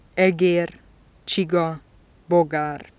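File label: velar stop voiced medial, hungarian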